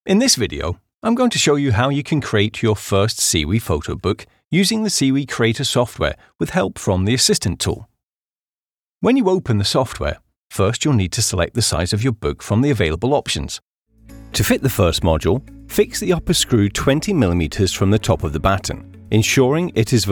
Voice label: English British e-learning